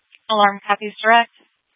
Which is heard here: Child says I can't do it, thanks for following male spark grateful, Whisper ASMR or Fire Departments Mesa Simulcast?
Fire Departments Mesa Simulcast